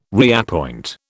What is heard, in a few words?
"reappoint", British